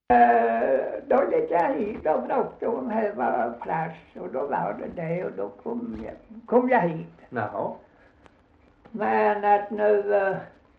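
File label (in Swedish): Gunnarp Halland, dialekter; Folkminnen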